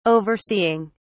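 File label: "overseeing", English, British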